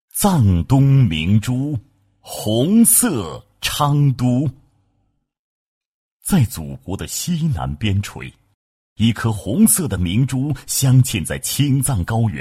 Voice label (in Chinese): 男 专题 磁性厚重风格, 国语男声配音, 浑厚磁性; 昌都